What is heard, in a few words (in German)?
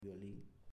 "Violin" Spanisch